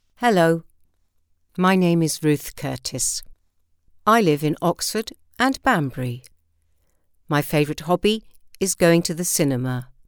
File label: Natural read